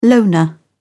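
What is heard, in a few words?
"loner", British English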